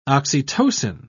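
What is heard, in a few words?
"oxytocin", British English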